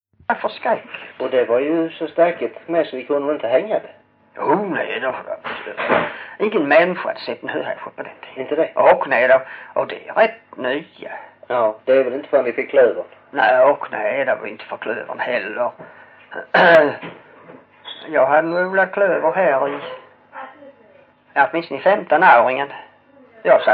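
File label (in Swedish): Hörja Skåne, dialekter, Svenska sagor; Folkminnen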